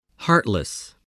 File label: "heartless", English, spelling